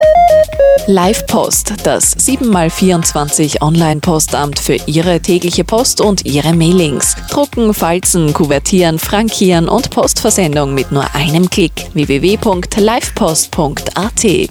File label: Spot; kronehit; Livepost